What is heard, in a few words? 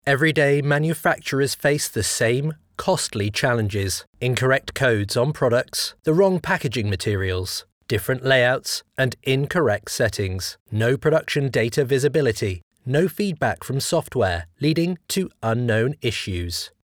Corporate Video Animation